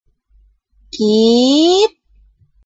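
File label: "gip2", Cantonese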